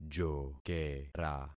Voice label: "jokera", concat syllables; Affixing experiment